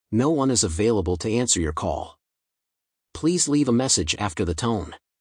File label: voicemail, phone